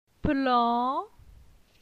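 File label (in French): "Plan", French; phonetique; la syllabe an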